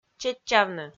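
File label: "чеччавны", Komi, female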